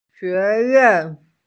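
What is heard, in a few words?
"xue2yuan4", Mandarin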